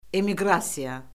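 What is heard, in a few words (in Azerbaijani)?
"emiqrasiya", Azerbaijani; Orfoqrafiya Lüğəti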